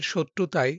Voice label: Male